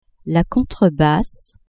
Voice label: "contrebasse", French; instruments